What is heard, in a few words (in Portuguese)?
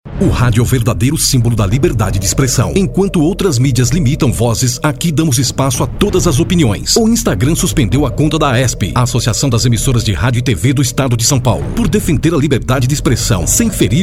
spot radio; repudio; instagram